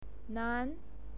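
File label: "nan" second tone, pinyin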